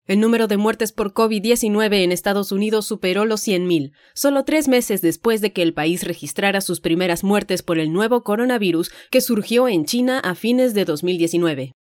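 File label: Spanish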